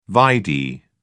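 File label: "vide", British English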